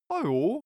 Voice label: cartoon male agree casual